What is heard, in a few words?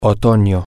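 autumn fall, Spanish, male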